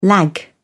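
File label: "lag", British English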